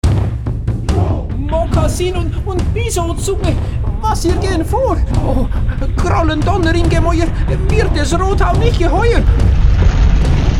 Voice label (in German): hoerspiel trailer